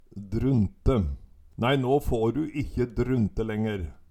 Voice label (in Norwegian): "drunte", Numedalsmål